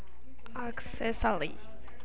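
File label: "accessory", Japanese speaker of English, female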